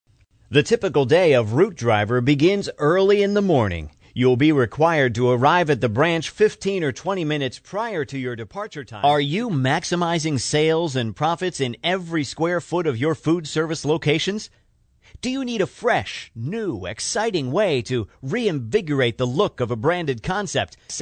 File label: Elearning montage